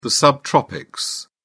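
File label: "subtropics", British English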